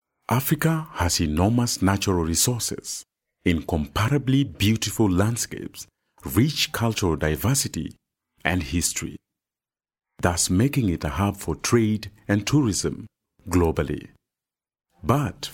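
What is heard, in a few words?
Afrikaans, English, male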